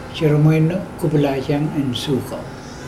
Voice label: the boy fell down from the tree, mokilese